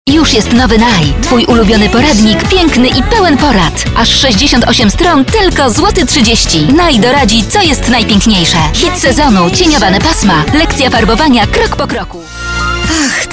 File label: COMMERCIALS